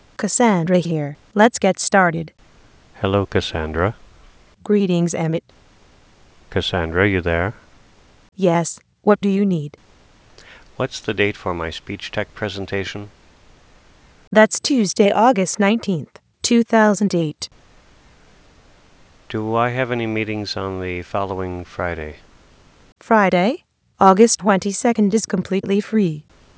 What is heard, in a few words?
Dialog